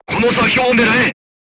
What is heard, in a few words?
voice message art command oneself, crew tanks japanese